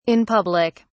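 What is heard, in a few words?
"in public", British English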